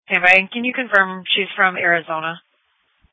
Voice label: Police Dispatch; sdr